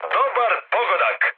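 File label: Serbian player killed enemy mood high, speech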